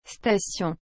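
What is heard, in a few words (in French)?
"station", French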